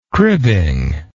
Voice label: "cribbing", English